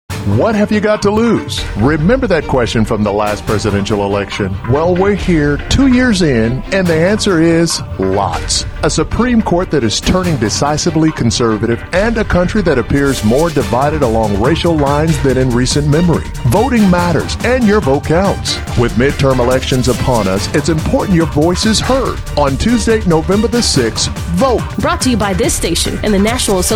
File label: MALE SPOT